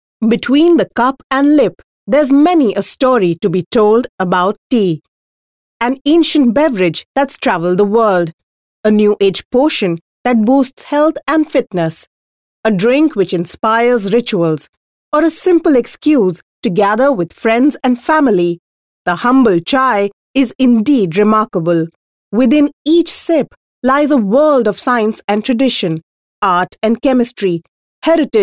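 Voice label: English, female voice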